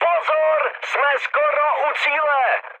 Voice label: near waypoint, speech cze, mood high; War Thunder

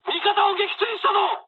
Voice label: player killed ally mood high, speech jp; War Thunder

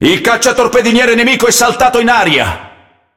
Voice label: It Speech Destroy Enemy Destroyer; World Of Warships

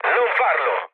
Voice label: bomb failure mood low, speech it; War Thunder

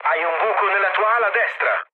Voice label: right wing mood low, speech it; War Thunder

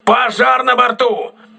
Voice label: voice message fire on board, crew ships russian

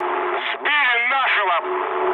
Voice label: aircraft destroyed, mood med, speech ru

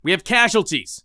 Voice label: friendly down; Escape from Tarkov

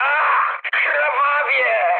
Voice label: damaged pilot mood med, speech pol; War Thunder

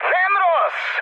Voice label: trick, mood high, speech hun; War Thunder

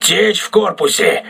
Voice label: voice message hull breach, crew ships russian

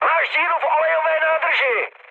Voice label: damaged oil, speech cze, mood low; War Thunder

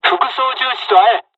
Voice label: fly, dialogs japanese; War Thunder

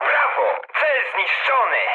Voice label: bomb success mood med, speech pol; War Thunder

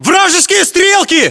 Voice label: threat infantry generic, english

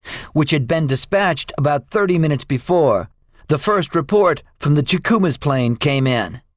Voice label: debriefing, english; second wave; War Thunder

